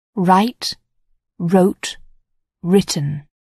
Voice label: "write", English